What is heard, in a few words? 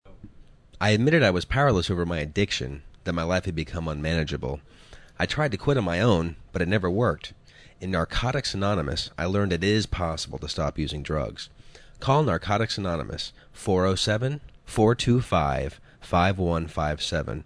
Male